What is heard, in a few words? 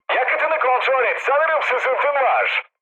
tank damaged, mood high, speech tur; War Thunder